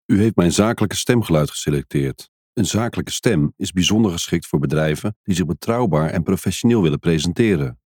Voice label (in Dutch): zakelijk